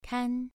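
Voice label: "kan", Mandarin Chinese, second tone, pronunciation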